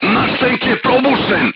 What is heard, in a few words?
voice message player tank hit, crew tanks serbian